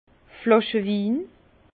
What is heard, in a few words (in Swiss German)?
"flaschewin", Bas Rhin; vin; vin en bouteille